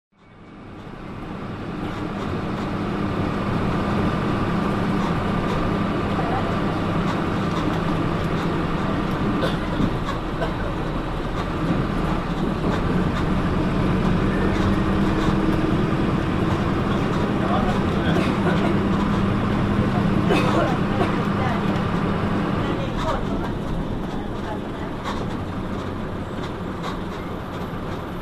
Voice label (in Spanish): transportes linea 143 negra chofer anunciando parada